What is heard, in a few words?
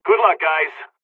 dialogs polish; War Thunder